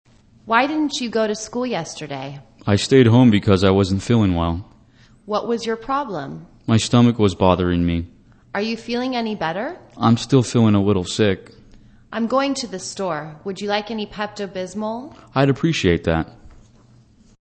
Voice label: english conversation Expressing Concern